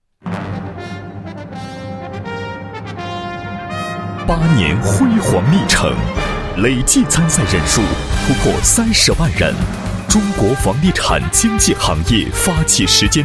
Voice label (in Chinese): 男 房地产经纪 试音, 国语男声配音; syw中国房地产经纪